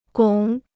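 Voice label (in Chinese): "拱" Chinese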